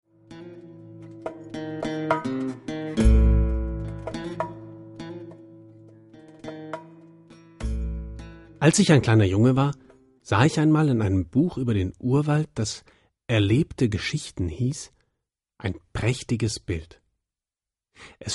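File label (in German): erzaehlung, deutsch männer; sprecher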